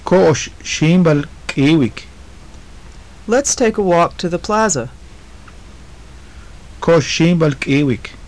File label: phrases, maya